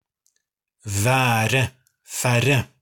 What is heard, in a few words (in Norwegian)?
vokalen ae ae